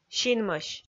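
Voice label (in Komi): "синмӧсь", Komi, female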